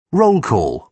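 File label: "roll-call", British English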